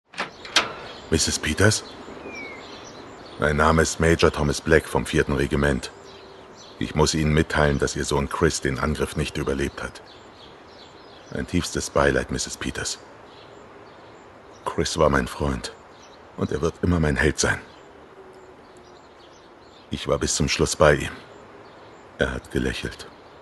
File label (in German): Todesnachricht Synchron